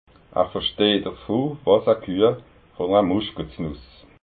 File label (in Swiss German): "versteht", alsace